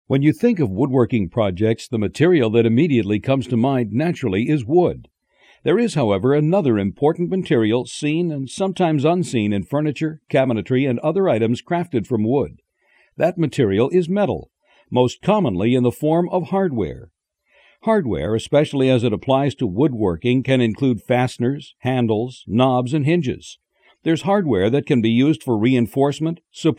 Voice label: non-fiction audio book